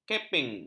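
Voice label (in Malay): "caping", Malay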